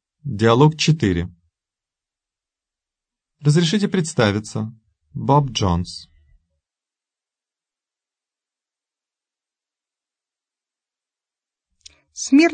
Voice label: dialog, russian